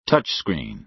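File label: "touch screen", American English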